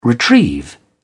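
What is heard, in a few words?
"retrieve", British English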